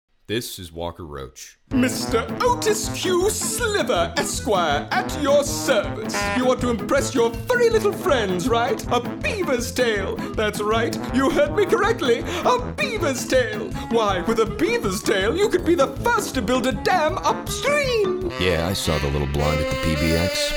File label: Animation